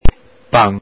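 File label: "pan", Venetian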